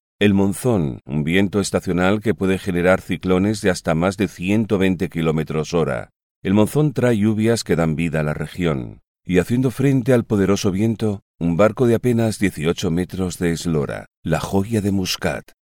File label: documentary